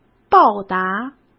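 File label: "报答", Mandarin Chinese; hsk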